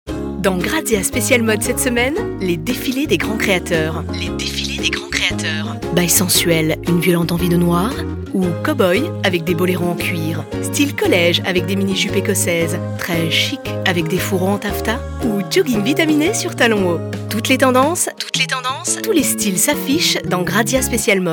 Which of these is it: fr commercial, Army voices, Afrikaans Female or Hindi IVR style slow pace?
fr commercial